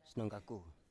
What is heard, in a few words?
"snonggaku", Biak